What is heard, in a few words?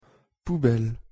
"poubelle" pronunciation fr